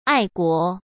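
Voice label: "爱国", Mandarin Chinese; chinese flashcards; hsk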